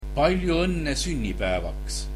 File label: Happy birthday, estonian